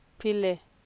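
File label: "pile" Nigerian Pidgin, female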